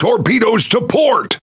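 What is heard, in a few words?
Pirate Speech Alarms Torpedo Left, English pirate; World Of Warships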